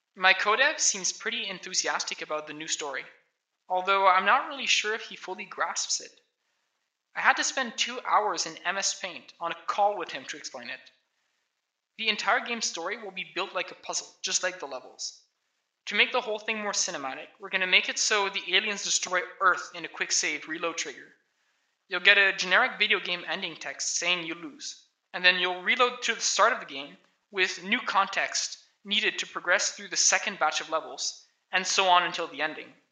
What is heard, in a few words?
voice, narration